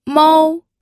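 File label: "mou", first tone, pinyin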